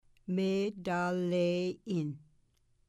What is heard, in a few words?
"metaleyin", Mi'kmaw